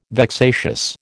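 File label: "vexatious", American English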